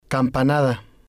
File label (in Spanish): "campanada", spanish